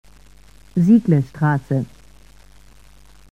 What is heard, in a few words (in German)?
Ansage Haltestelle Sieglestr; SSB AG; Haltestellenansagen aus Stuttgart